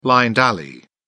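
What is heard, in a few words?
"blind-alley", British English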